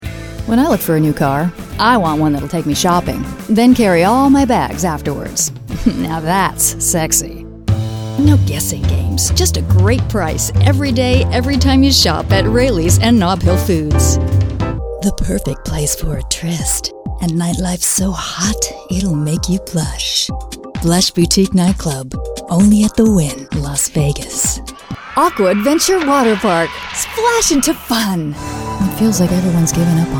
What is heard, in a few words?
Commercial